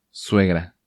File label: "Suegra", Spanish